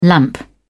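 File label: "lamp", British English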